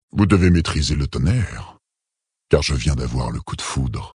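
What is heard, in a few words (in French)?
Male Flirt, voix françaises; Tauren Haut Roc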